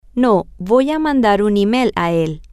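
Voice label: No I am going to send an email to him, Spanish, female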